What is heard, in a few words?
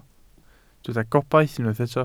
"gobaith", Welsh